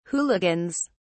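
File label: "hooligans", British English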